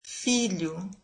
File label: brazilian son